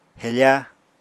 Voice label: "hefa", apatani

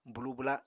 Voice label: "bwlu-bwla", Apatani

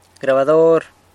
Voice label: "grabador", San Lucas Quiavini; tape recorder

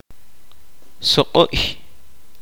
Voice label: kaqchikel; moss

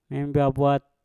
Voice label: "embeabwat", Mpur; sembilan

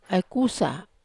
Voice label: "ai kusa", wamesa

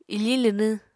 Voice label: "ifiñ linwñ", Apatani

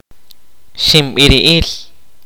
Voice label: kaqchikel; Fright illness

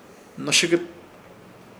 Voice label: "Hnoshɨkɨt" espada de palo, Ishir Chamacoco

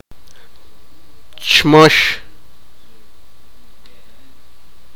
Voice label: sipakapense

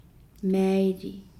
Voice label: "fast maaili", Nukuoro

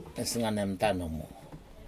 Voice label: fish eye, Aneityum; nesganemtan numu

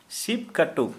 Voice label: Santali, little finger

